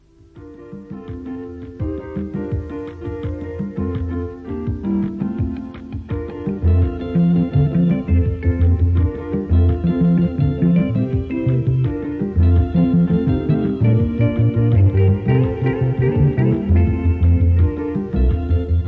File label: jesus teacher and healer, kirundi, drama